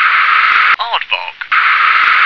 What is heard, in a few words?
"aardvark", English